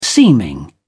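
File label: "seeming", British English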